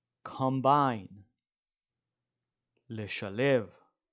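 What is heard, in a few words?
"combine" English, "לשלב" Hebrew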